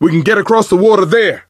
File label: misc directional, coach, survivor voice